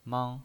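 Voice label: "mang", pinyin voice, Mandarin Chinese, first tone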